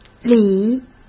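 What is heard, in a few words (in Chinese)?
"里", Mandarin Chinese; hsk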